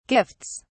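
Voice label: "gifts", British English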